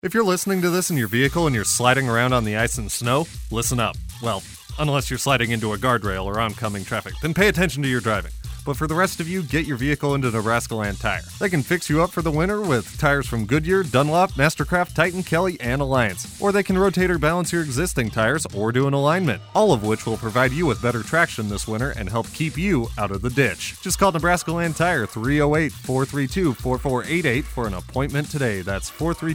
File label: Commercial